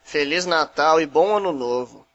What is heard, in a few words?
christmas portugese